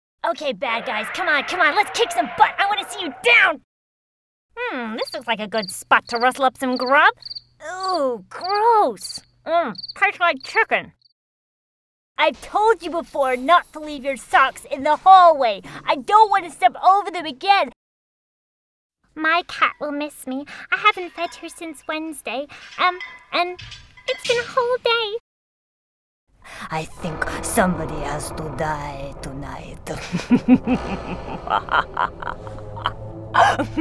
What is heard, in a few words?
Animation